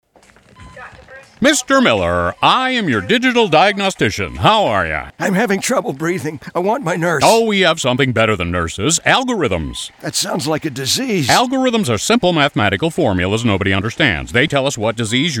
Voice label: radio ad; personalized care algorithms